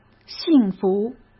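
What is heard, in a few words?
"幸福", Mandarin Chinese; chinese-flashcards; hsk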